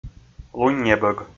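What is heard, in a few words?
"луннебӧг", Komi, male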